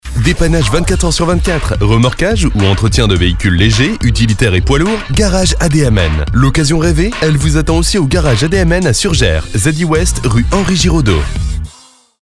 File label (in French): Spot garage; ADMN horoscope